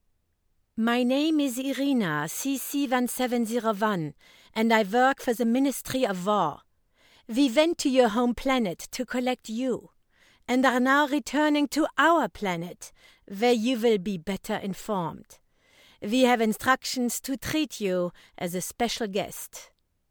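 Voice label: German Accent; Vixens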